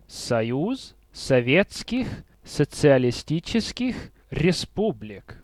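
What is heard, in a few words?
"CCCP", Russian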